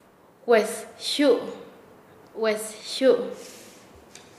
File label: Nasa Yuwe; lazo; paez